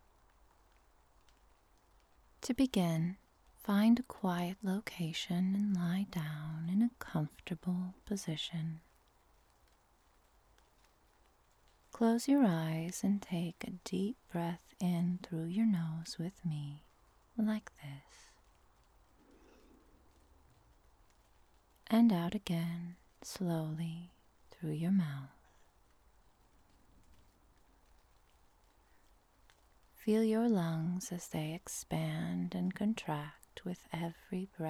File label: Hypnotic ASMR for Sleep and Relaxation (Female Voice) (Rain); HypnosisSleepRelaxation